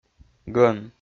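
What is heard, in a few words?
"гӧн", Komi, male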